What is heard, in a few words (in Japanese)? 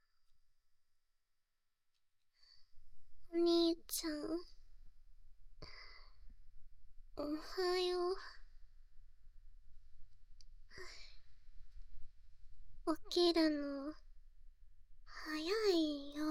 おはよう, アラーム音声; ソニア